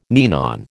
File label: "ninon", American